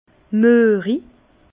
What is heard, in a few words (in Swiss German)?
"muerig", Bas Rhin; vin; bourbeux